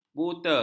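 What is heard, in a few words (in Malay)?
"buta", Malay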